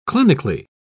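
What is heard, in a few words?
"clinically", British English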